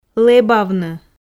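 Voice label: "лэбавны" Komi, female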